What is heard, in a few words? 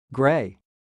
"grey", English